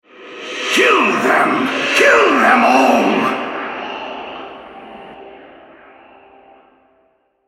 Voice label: Altar Capture, Male

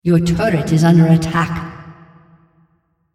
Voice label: female, On Turret Attacked Your Turret

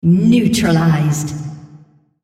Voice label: female On Champion Kill Turret

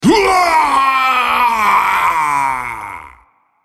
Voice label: dying, en US; BloodKingVladimir

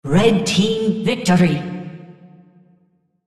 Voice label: On Victory red, female

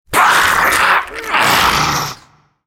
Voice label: dying, en US; Khazix; Character Voices - English

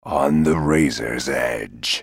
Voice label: move, en US; Talon

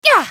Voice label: spellcast effort; Irelia

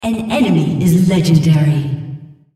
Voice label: female Killing Spree

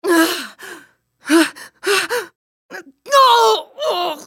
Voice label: dying, en US; Sejuani; Character Voices - English

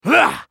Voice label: spellcast effort, en US; Swain

